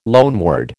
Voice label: "loanword", American